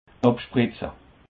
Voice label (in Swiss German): "abspritze", Alsace